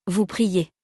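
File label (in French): "vous priez", French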